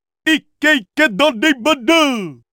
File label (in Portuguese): winning eleven narracao